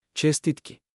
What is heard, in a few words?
congratulations macedonian; Честитки!; Čestitki!